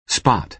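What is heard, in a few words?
"spot", American English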